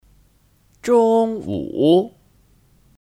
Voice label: "中午", Zhōngwǔ

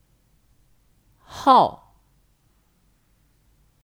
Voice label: "号", Hào; Kata benda; Nomor

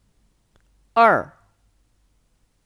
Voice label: "二", Èr

